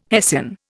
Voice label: "hessian", Indian